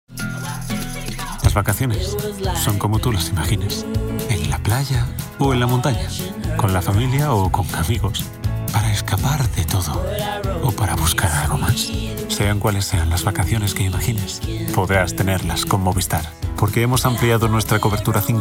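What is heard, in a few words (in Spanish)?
Inspiracional, locución; Movistar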